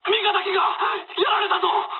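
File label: aircraft destroyed mood med, speech japanese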